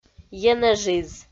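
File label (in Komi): "енэжиз", Komi, female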